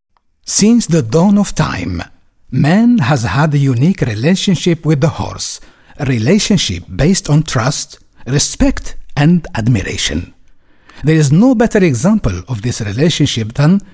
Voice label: male english with arabic accent narration